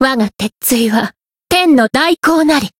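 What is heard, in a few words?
Voice chant, Japanese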